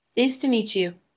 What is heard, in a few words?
Voice Acting es